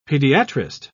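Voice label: "pediatrist", English, British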